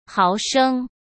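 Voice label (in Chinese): "毫升", Mandarin Chinese; hsk; chinese-flashcards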